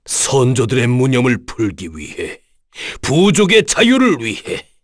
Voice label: vox get, kr; heroes; Dakaris; kingsraid